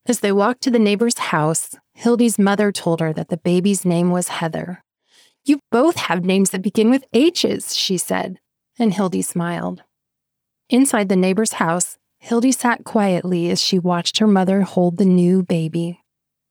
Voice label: Fiction YA Demo